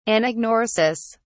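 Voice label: "anagnorisis", British English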